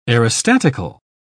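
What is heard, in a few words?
"aerostatical", English, uk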